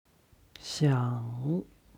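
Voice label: "xiang", Chinese; 想; 考える; HSK Level One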